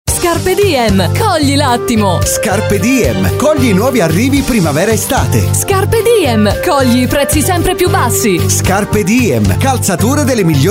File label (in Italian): Scarpediem Spot Radio; Linea 1 Abruzzo Marche